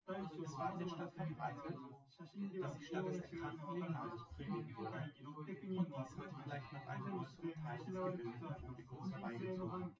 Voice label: test male synthconv 250ms 1m sim; SiSEC08; SiSEC underdetermined